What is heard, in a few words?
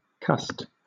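"cussed", British English; pronunciations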